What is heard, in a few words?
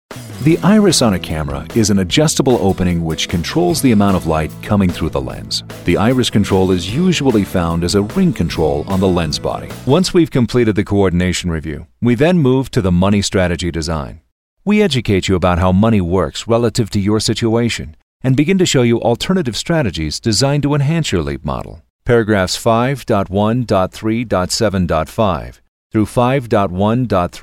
E-Learning